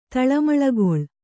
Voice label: "ತಳಮಳಗೊಳ್", Kannada

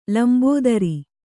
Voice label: "ಲಂಬೋದರಿ", kannada

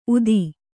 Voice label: "ಊದ", Kannada

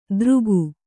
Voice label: "ದರಕು", Kannada

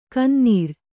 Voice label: "ಗೊನ್ನೂರು", kannada

